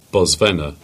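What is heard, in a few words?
"Bodmin" Cornish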